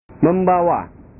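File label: "membawa", Indonesian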